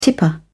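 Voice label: "tipper", British English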